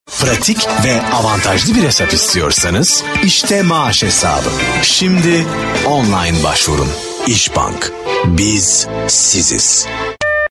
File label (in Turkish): reklam enerjik karizmatik; isbank